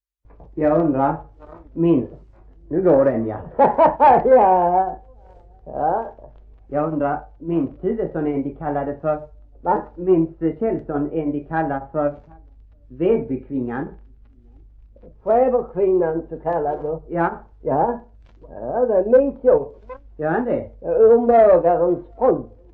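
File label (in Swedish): Gråmanstorp Skåne, Svenska sagor dialekter; Folkminnen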